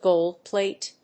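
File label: "gold plate", English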